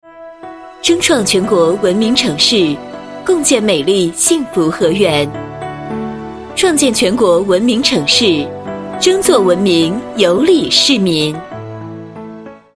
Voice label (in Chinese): 女 城市宣传 争创全国文明城市; 国语女声配音; 双语配音